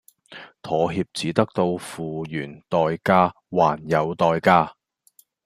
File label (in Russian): Голоса, Гонконгский